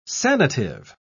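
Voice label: "sanative", British English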